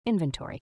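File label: "inventory", American English, female; everyone-can-use-english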